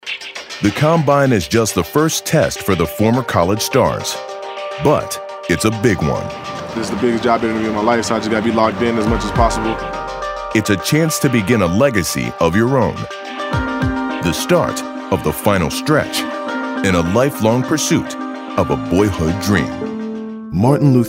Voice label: men narration